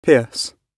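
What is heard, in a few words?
"pierce", British English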